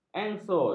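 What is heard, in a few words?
"engsot", Malay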